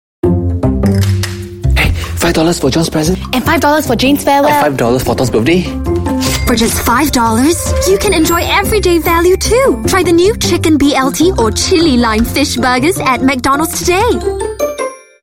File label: Asian English; McDonalds Fish Chicken Burger